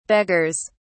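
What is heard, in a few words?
"beggars", en, uk British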